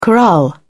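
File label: "corral", British English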